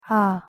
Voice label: "ㅏ", ko